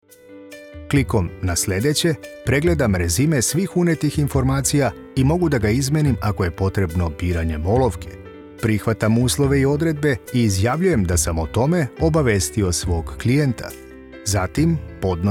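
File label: explainer application software serbian male voiceover; whiteboard video web animation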